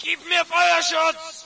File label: ger cover; player; Source Engine; dod